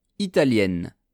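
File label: "italienne", French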